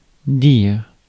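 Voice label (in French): "dire", French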